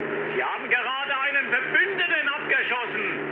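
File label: German player killed ally mood low, speech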